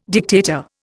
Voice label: "dictator", Indian